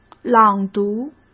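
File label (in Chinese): "朗读", Mandarin Chinese; chinese flashcards; hsk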